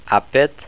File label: plaster, maasai